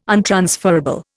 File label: "untransferable", Indian